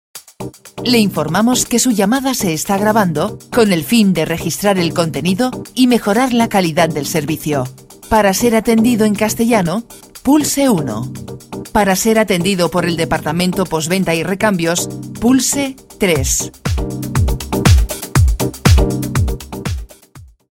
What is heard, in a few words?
esp